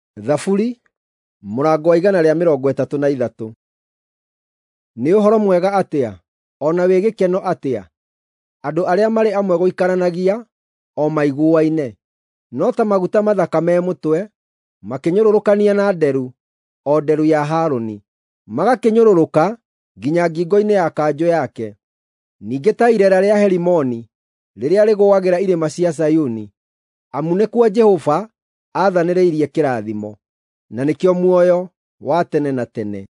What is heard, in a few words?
psalms, gikuyu drama